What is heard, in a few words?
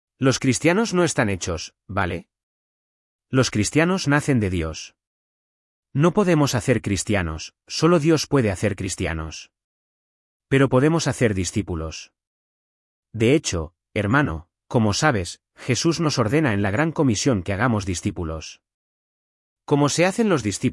spanish es-ES; Neural; AlvaroNeural